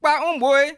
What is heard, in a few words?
help, bassa